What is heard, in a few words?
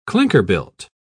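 "clinker-built", British English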